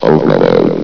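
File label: "overload", male synthesized announcer voice; blizzard; hlvox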